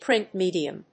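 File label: "print medium", English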